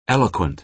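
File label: "eloquent" American English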